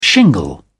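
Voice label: "shingle", British English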